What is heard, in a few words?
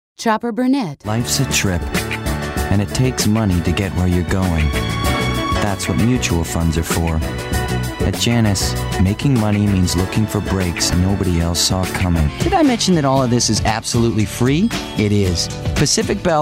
commercial